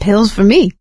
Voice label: spot pills, survivor voice; source engine; left 4 dead 2; producer